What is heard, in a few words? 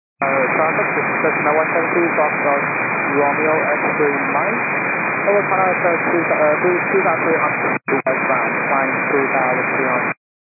122.925 MHz; scanner radio